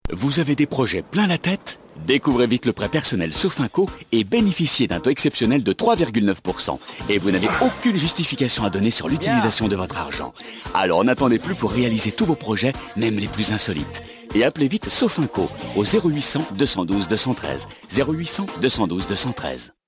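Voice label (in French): PUB Sofinco, voix; Viril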